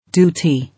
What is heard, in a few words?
"Duty", English